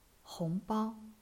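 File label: "hong2bao1", Chinese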